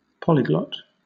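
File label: "polyglot", British English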